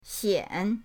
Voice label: "xian3", pinyin

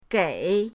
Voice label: "gei3", pinyin; pinyindct-open

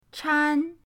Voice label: "chan1", pinyin

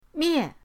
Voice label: "mie4", pinyin

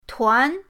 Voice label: "tuan2", pinyin

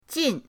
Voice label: "jin4", pinyin

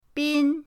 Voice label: "bin1", pinyin

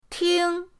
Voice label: "ting1", pinyin

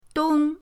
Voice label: "dong1", pinyin

